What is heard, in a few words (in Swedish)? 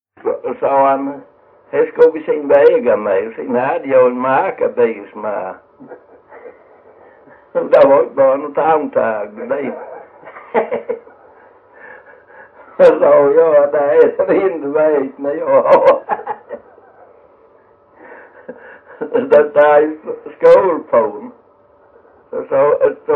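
Jämshög Blekinge, dialekter svenska sagor; Folkminnen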